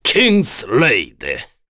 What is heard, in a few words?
Vox, kr; Dakaris; Kingsraid